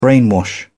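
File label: "brainwash", British English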